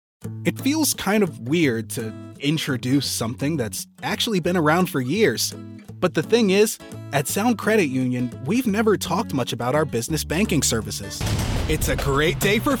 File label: Commercial